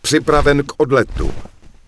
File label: Human Male Incoming, Human Vocal Male; wowpreklad; czwow